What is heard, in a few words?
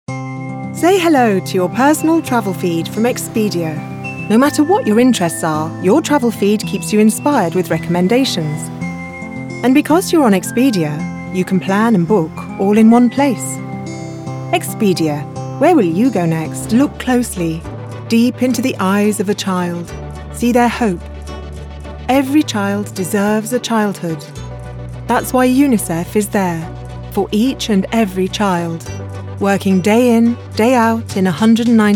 Commercial